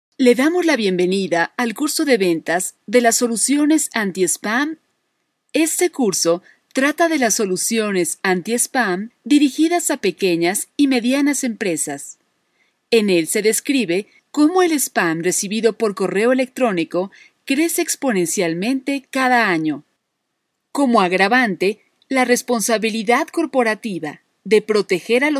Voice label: corporate presentation

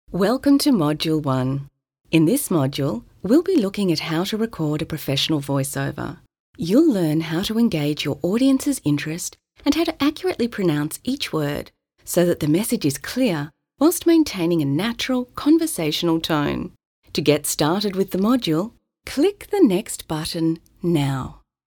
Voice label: e learning module; UNSW

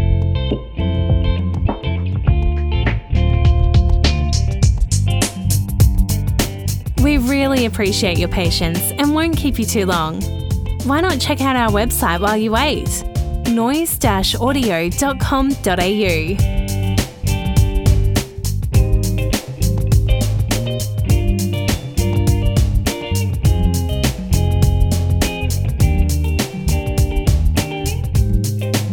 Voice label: On Hold

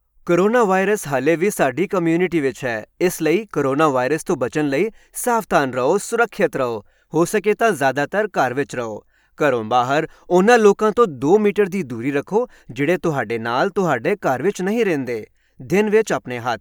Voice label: Punjabi coronvirus ad

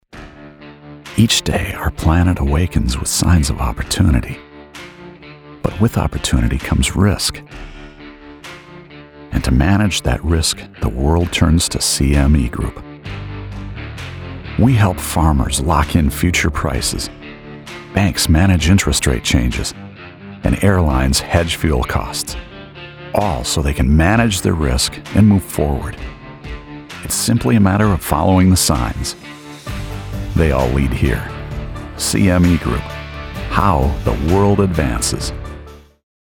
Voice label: Quiet Intensity

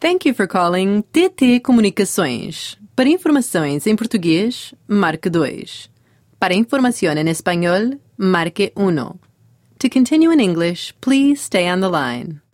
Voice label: Bilingual telephony

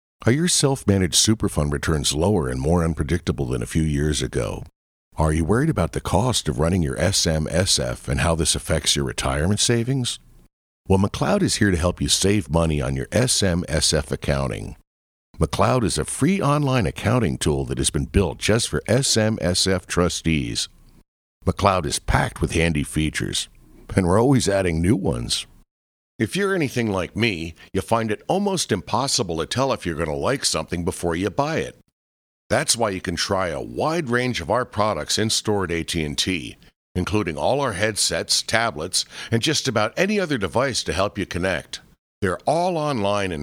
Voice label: dry home VO

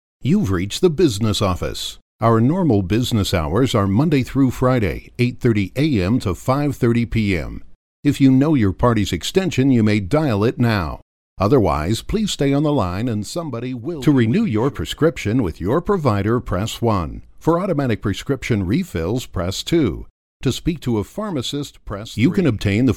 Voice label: IVR

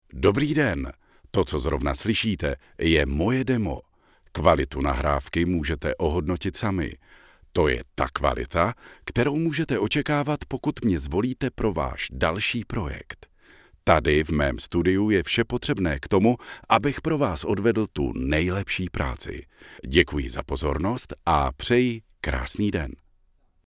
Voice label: male czech

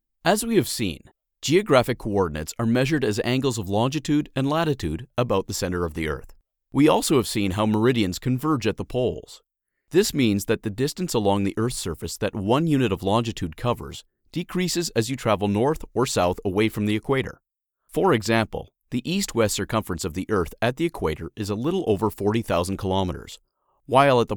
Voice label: Voiceover for Elearning narrated powerpoint

